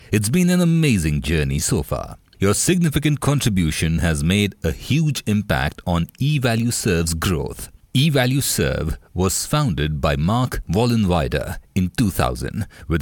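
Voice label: english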